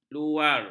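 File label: "luar", Malay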